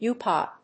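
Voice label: "u-pop", English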